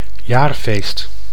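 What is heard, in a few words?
"jaarfeest", Dutch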